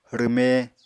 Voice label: "rwme", Apatani

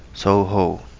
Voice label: "Touhou", Kapingamarangi

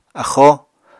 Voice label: "axóo", Apatani

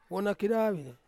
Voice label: "wonakedawi", Ansus; beetle

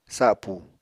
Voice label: "sapu", Apatani

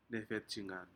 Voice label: "nefetijgan", Aneityum; shellfish

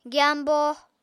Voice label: "gyambo", Apatani

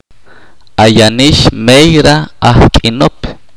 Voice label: "hace mucho tiempo", chorti

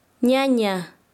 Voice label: Chanka, quechua; hermana de MaM

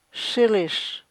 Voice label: "shilish" hair, chukchansi

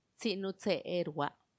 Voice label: "tsinutseerua", thirtythree, macuiltianguis; treinta y tres

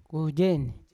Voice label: "kuejewen", Yawa; bad; unat

